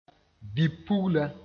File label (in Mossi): "bi-pugla", moore; girl